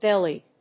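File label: "bellied", English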